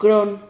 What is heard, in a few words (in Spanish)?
"cron", Silabas